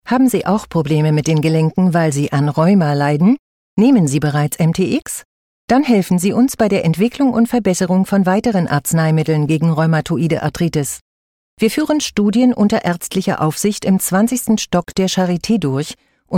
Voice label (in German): werbespot, deutsch frauen; sprecher